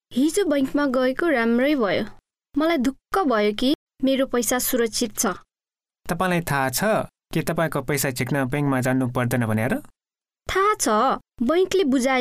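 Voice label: banking nepalese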